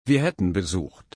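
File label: "besuchen", German, slow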